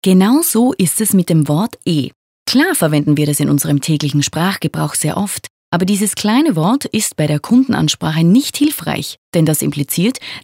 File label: ELearning; Bawag PSK